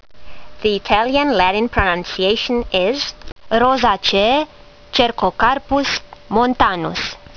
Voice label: latin; Cercocarpus montanus